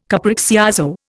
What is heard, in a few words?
"capriccioso", Indian